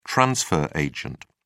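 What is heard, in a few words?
"transfer agent", British English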